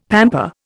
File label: "pamper", Indian; Dictionary